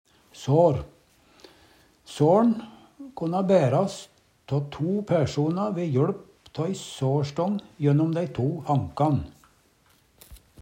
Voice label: "sar", Numedalsmål

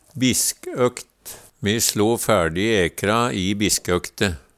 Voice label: "biskøkt", Numedalsmål; Substantiv hokjønn

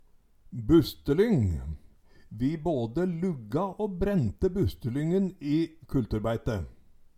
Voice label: "bustelyng", Numedalsmål

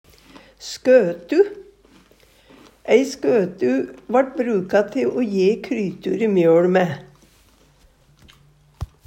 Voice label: "skotu", Numedalsmål; Substantiv hokjønn

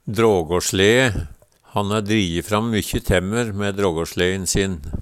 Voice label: "drågåsjlee", Numedalsmål; Substantiv hankjønn

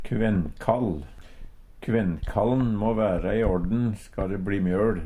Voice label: kvennkall, Numedalsmål; Kvennkallen må væra i orden ska de bli mjøl.

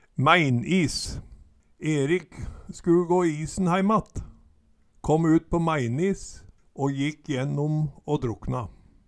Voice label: mæinis, Numedalsmål

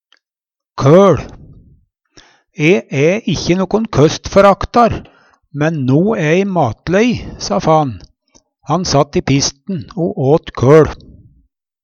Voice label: koL, Numedalsmål

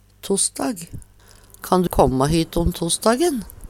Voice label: tossdag, Numedalsmål; Substantiv hankjønn; Tida (dagen, året, merkedagar)

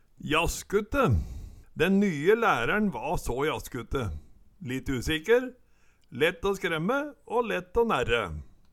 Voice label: "jaskute", Numedalsmål; Er og bruka om skvetne dyr; Ordet er ubøyeleg

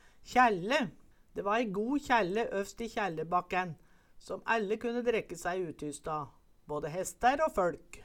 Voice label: kjaelle, Numedalsmål

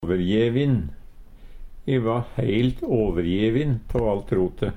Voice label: "åverjevin", Numedalsmål; overgidd; E va heilt overjevin tå alt rotet.; Adverb

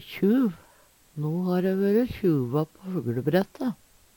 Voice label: kjuv, Numedalsmål

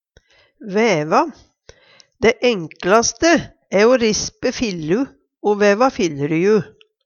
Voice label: vaeva, Numedalsmål; Dæ enklaste æ o rispe fillu o væva filleryu.; Verb

